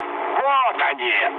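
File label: Russian enemy detected mood low, speech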